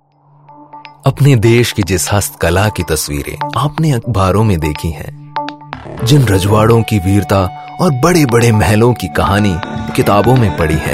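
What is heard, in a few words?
tourism hindi voice over, male voice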